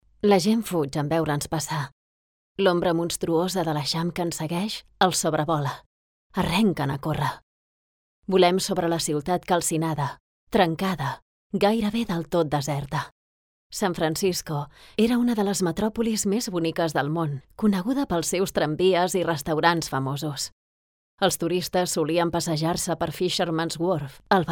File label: catalan audiobooks